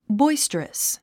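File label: "boisterous", English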